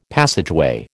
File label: "passageway", American